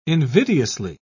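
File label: "invidiously", British English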